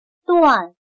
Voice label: "锻", chino

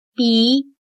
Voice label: "鼻", chino

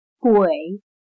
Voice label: "鬼", chino

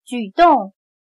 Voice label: "举动", chino

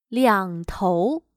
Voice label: "两头", chino

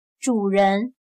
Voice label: "主人", chino

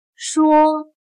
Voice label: "说", chino

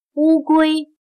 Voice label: "乌龟", chino

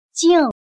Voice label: "镜", chino

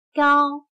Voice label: "高", chino

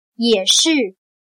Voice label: "也是", chino

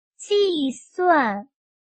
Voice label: "计算", 汉语

